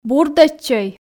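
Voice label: "бурдӧдчӧй", Komi, female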